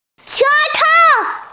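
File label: "tongue", hearing impaired; she2tou5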